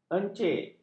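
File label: "encik", Malay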